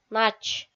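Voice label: "нач", Komi-Zyrian, female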